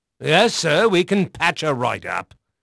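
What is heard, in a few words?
English male; Pirates Of The Caribbean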